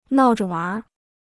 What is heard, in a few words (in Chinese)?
"闹着玩儿", Chinese